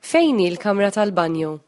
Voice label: toilet maltese